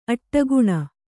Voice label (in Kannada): "ಅಡಿಗಾಣ್", Kannada